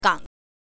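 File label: "gang", Mandarin Chinese, neutral tone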